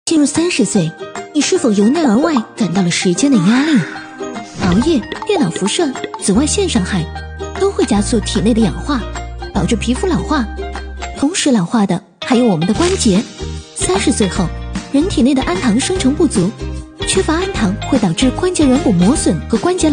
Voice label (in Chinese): 女 MG风格 清新旁白